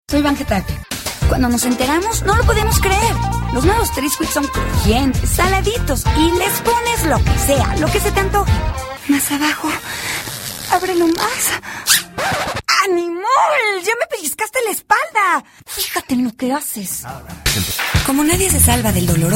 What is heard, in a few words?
spanish commercial